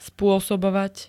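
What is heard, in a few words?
"spôsobovať", Slovak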